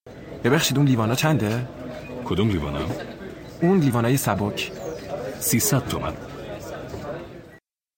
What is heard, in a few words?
Dialogue lesson Farsi